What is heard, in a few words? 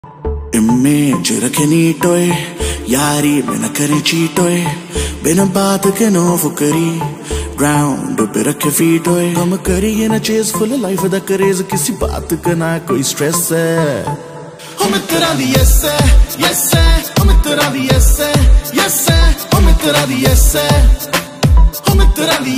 punjabi rap ringtone